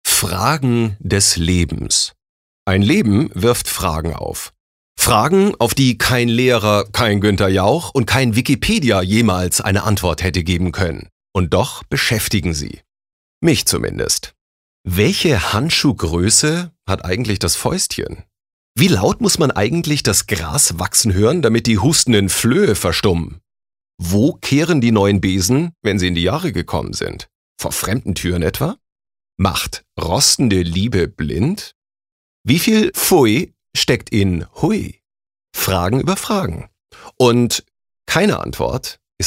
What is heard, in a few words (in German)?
Schauspiel Freundlich